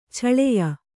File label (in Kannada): "ಛಳೆಯ", kannada